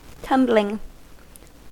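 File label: "tumbling", American English; pronunciations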